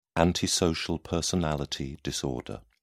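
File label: "antisocial personality disorder", British English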